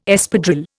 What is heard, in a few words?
"Espadrille", Indian